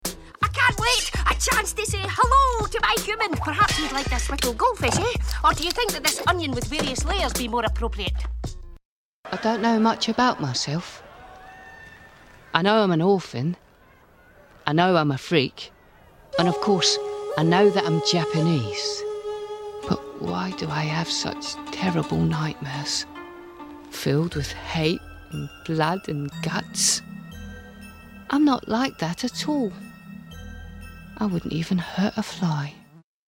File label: Character